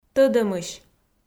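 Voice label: "тӧдӧмысь", Komi, female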